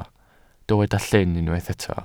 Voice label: "llyn", Welsh